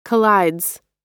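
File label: "collides", American English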